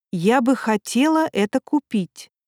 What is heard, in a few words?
ru useful phrases Female